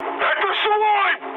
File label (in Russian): player friendly fire, mood high, speech ru